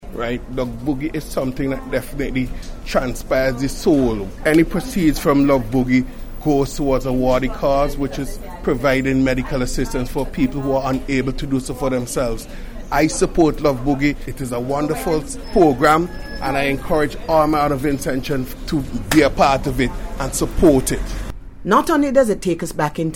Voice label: VOX POP